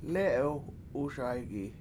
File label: "lE7ewuSay7gi", washo; I Usually Ate It